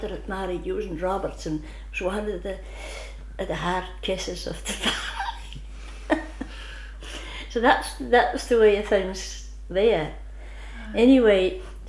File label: Northern and Insular Scots, Shetland - Yell